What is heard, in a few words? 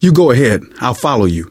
lead on, survivor voice; source engine; left 4 dead 2; manager